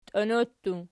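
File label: "tiono" plural, Archi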